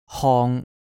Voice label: "hong1" Cantonese